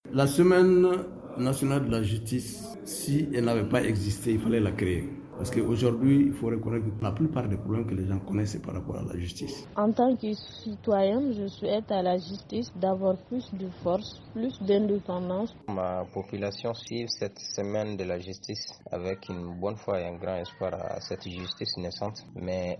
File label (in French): VOX POP; JUSTICE